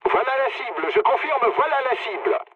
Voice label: near waypoint mood low, speech fr; War Thunder